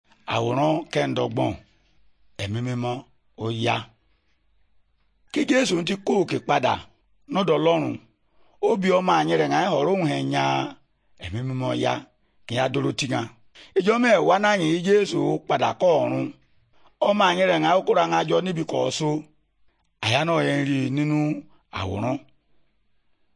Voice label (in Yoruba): good news yoruba, bible